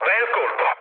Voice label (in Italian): bomb success, mood low, speech it; War Thunder